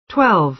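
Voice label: "twelve", American English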